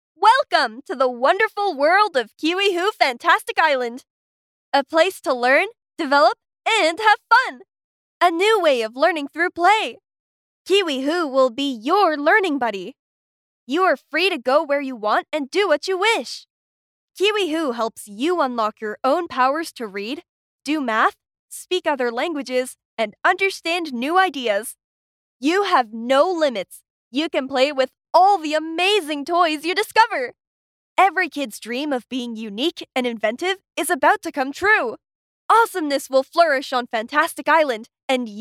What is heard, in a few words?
Trailer Launch English